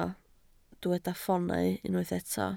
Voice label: "ffoonau", Welsh